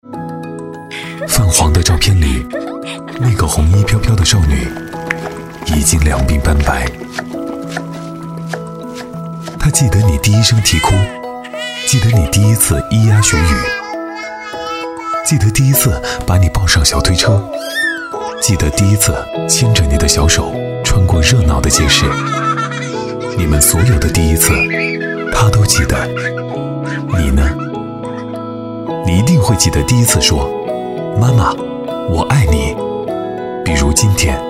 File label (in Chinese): 男, 片花, 音乐广播; 河北; FM1024